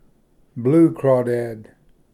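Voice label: "blue crawdad", English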